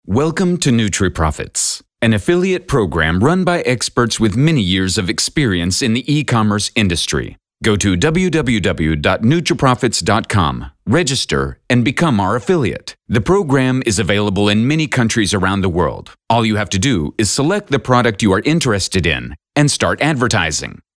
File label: narration